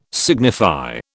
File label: "signify", British